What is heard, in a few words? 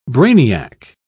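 "brainiac", British English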